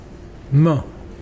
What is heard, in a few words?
Mokilese grass